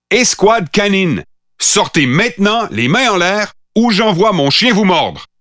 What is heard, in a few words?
Pathfinder French Standard Canadian